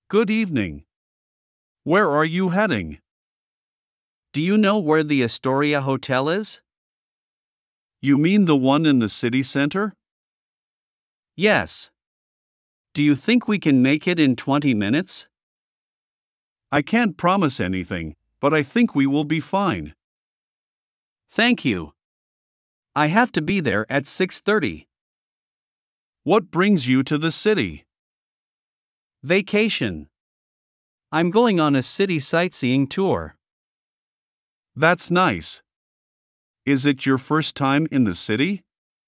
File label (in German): Dialog; Taxifahren